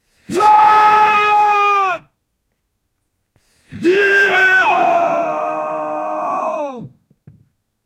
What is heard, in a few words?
angry man shouting